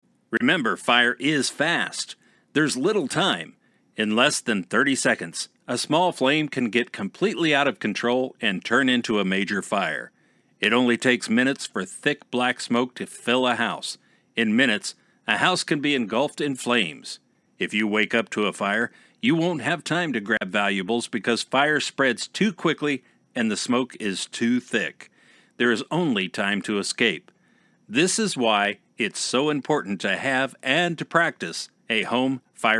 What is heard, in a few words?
Narration Example